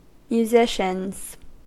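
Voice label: "musicians", US English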